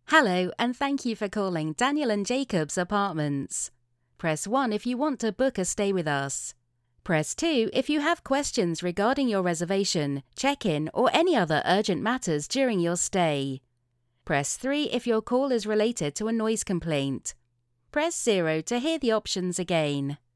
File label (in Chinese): 亲切语音